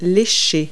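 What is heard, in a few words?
"lick", English word; Multi-Lingual Talking Picture Dictionary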